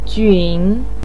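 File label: "jun", Mandarin Chinese, third tone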